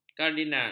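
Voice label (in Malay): "kardinal", Malay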